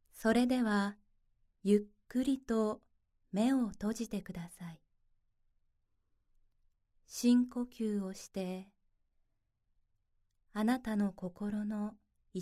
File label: narration